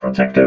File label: "protective", vox robot